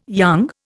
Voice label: "young", Indian